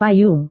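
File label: "payung", Indonesian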